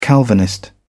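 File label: "calvinist", British English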